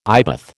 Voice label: "eyebath", American

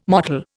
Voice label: "mottle", Indian

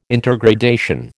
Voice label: "intergradation", American

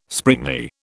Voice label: "springy", British

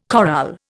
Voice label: "chorale", Indian

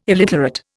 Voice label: "illiterate", Indian

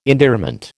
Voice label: "endearment", American

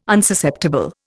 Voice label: "Unsusceptible", Indian

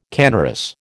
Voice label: "canorous", American

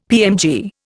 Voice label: "PMG", Indian; Dictionary

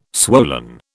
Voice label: "swollen", British

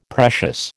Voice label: "precious", American

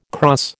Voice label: "krans", American

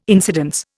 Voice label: "incidence", Indian

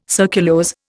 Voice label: "surculose", Indian

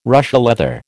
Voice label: "Russia leather", American

